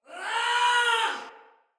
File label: Battle Cry, Female; Barks; Dialog; Sinecure Soldier Female B